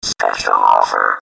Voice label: spec offer, voices

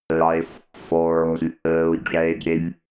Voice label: robot voice lifeforms located

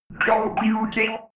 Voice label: robot voice computing fx

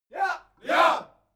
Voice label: military shout german yes

